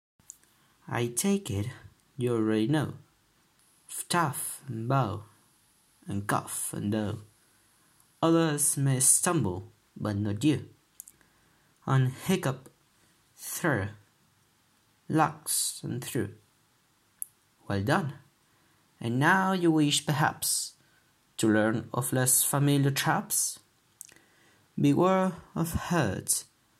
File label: Pronunciation poem